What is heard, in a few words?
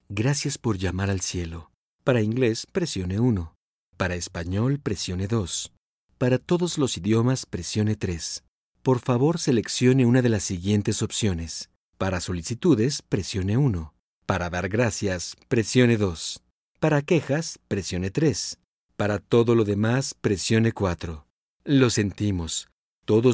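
Spanish Latin American IVR; IVR/Phone systems; Male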